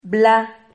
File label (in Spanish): "bla", Silabas